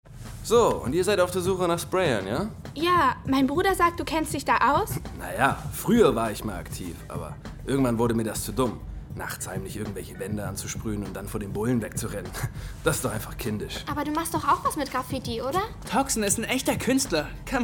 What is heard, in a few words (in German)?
Hoerspiel fuer Jungendliche; Alsterdetektive